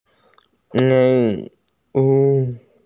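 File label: "white cloud", Mandarin; bai2yun2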